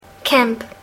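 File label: "camp", English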